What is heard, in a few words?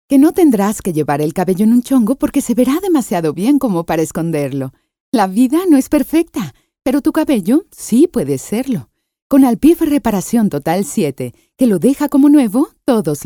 locutora mexicana mexican voiceover